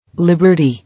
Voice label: "Liberty", English

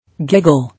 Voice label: "Giggle", English

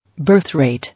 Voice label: "Birth rate", English

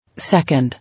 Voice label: "Second", English

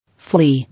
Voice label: "Flee", English

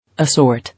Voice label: "Assort", English

